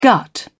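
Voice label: "gut", British English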